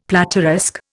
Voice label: "plateresque", Indian; Dictionary

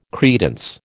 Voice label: "credence", American

